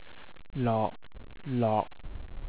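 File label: "lok6", Cantonese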